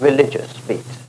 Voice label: LoFi Phrases, LoFi Vocals; Vengeance Samples